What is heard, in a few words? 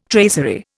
"tracery", Indian